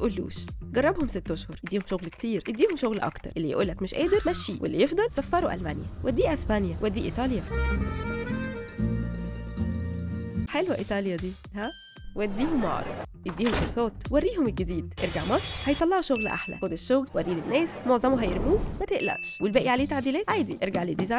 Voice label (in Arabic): اعلان سيراميك, معلقة صوتية مصرية